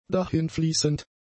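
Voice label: "dahinfließend", German; adjectives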